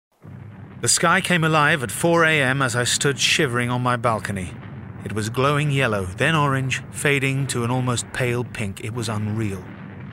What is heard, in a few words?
NEWS REPORT, Reporter, Assured, Neutral RP; BOSNIA; (ITV)